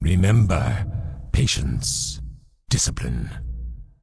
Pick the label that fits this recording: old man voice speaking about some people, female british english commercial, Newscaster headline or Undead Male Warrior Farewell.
Undead Male Warrior Farewell